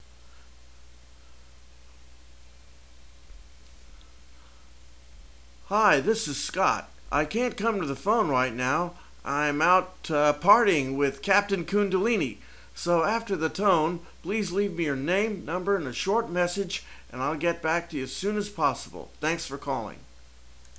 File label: Answer Greeting